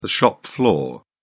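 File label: "shop floor", British English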